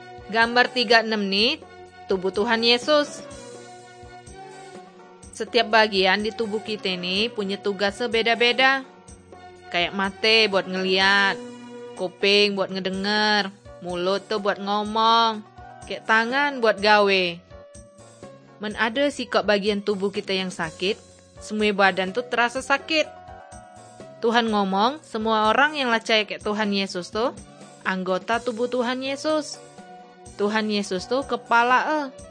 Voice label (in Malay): kabar baik good news malay bangka